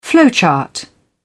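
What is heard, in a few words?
"flowchart", British English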